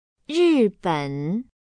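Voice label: "riben", Chinese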